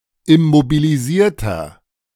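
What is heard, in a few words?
German "immobilisierter"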